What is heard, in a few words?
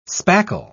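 "spackle", English, British English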